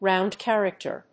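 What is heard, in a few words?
"round character", English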